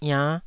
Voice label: "iann5", Taiwanese syllable